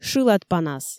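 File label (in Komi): "шылад панас", Komi, female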